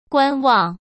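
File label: "观望", Mandarin Chinese; chinese-flashcards; hsk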